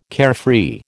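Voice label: "carefree", American English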